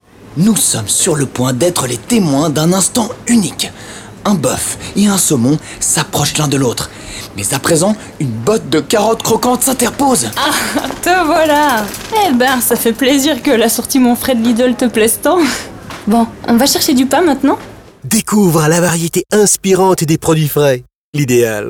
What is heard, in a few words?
Radio Spot; LIDL Sortiment